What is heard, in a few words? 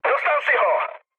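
player killed enemy, Czech, mood low; War Thunder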